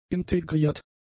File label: "integriert", German; adjectives